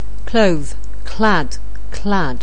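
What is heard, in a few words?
"clothe", English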